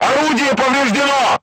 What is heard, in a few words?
voice message weapon damaged, crew tanks russian